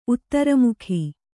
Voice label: "ಉತ್ತರಮುಖಿ", Kannada